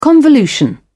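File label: "convolution", British English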